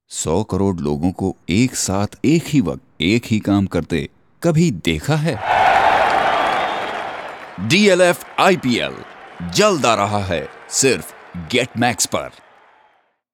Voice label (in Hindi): Commercial Hindi; IPL